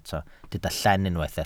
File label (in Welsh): "llen", Welsh